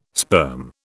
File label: "sperm", British